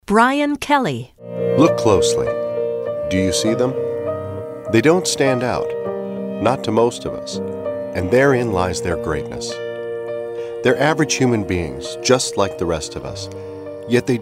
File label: Narrative